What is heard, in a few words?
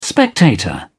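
"spectator", English, British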